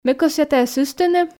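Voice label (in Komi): ме кӧсъя тайӧс ыстыны, Komi, female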